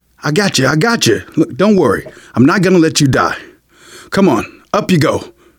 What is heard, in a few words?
revive friend, survivor voice manager